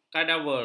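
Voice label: "kadaver", Malay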